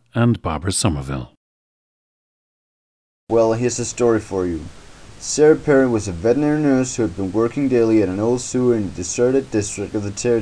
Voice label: denmark, dialects